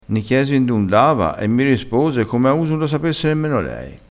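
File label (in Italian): "come a uso", dialettale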